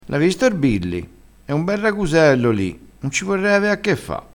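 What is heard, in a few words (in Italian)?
"raguseo", vocabolario dialettale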